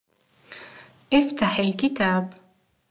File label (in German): oeffnet buecher, Arabisch; Interkultur; LearningApp